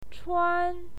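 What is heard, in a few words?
"chuan", Mandarin Chinese, first tone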